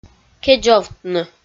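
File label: "кедзовтны", Komi, female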